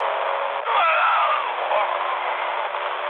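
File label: German damaged pilot, speech; War Thunder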